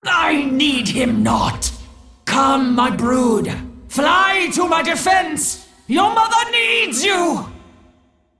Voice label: Female Dragon